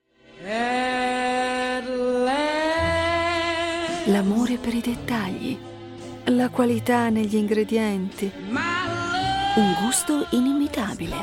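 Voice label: Italian Commercial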